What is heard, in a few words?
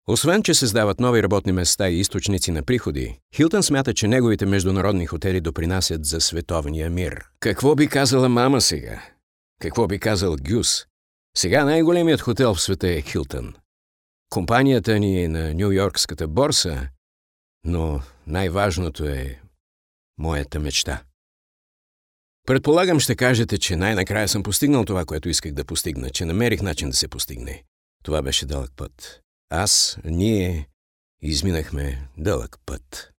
Bulg male